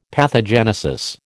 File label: "pathogenesis", American